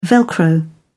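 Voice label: "velcro", British English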